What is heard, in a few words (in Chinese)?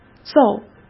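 "奏", Mandarin Chinese; chinese flashcards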